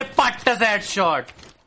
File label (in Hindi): patt se headshot, ringtone